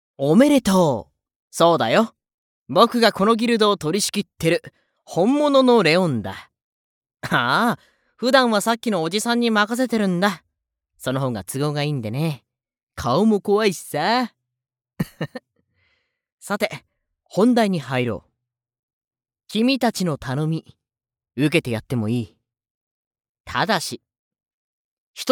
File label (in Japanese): カラッとしてるギルドの代表キャラ